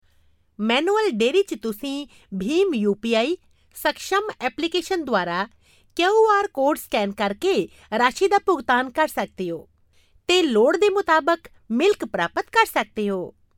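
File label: PUNJABI female, Punjabi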